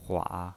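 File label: "hua", Mandarin Chinese, third tone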